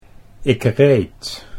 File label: "ik reed", Dutch